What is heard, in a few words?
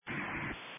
Sheriff Dispatch